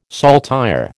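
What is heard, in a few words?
"saltire", American English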